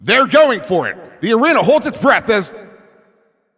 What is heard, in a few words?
Shot On Goal, Announcer